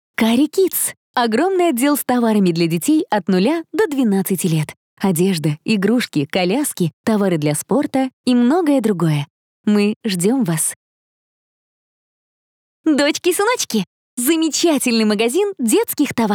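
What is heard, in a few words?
Advertisement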